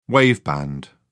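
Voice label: "waveband", British English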